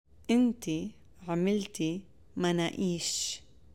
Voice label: Past tense Arabic